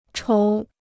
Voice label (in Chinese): "筹", Chinese